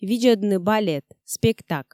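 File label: Komi, female; видзӧдны балет спектак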